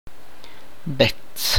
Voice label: "brother of a male", tlacochahuaya

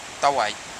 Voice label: "tawai", futuna aniwa; Canoe

